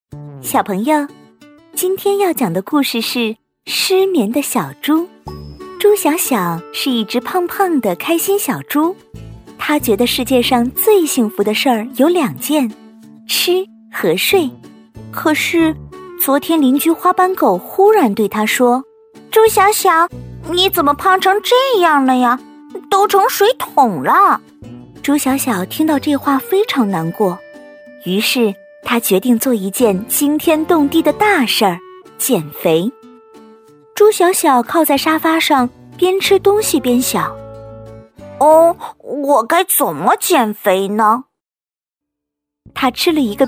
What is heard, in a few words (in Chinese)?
女 儿童故事 绘本 各种角色